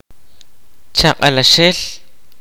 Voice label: "younger brother", kaqchikel